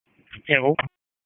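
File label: Wake Forest Police Dispatch, p25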